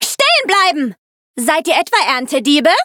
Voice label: battle, de; cookie run

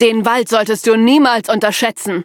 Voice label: loading, de; Cookie Run

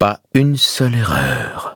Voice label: victory, fr; cookie run

